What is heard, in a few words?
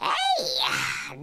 "ay" cartoon